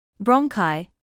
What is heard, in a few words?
"bronchi", medical english